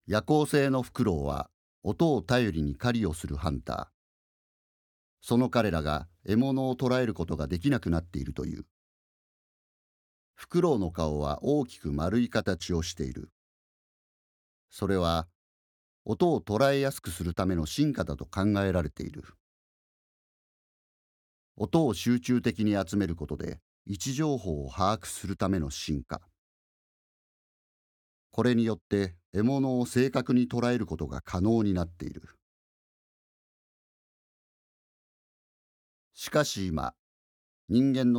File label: nature program narration